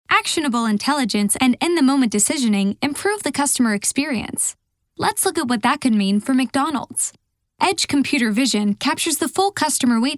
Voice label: Explainer